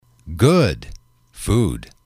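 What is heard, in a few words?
good food, English, male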